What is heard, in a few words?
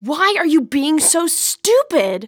Waitress Anger; zps